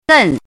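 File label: "gèn", Chinese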